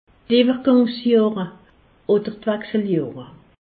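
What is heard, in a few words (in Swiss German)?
"iwwergangsjohre", Alsatian; medecine; ménopause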